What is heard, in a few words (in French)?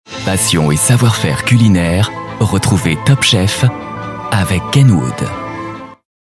elegant, comedien voix off parrainage; KENWOOD elegant